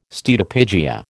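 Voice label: "steatopygia", American English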